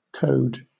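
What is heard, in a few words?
"code", British English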